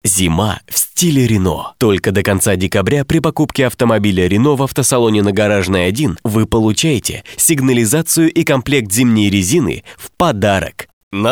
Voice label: Rus